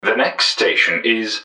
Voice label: next, english man, subway announcers; MetrostroiAddon